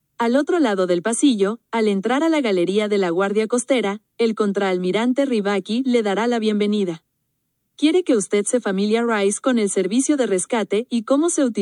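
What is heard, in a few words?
spanish, audio tour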